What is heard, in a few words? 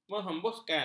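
"menghembuskan", Malay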